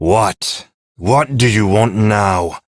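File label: Ambient Male Sweeper, Dialog; Duviri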